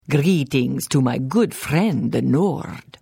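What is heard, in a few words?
Hello, Khajiit female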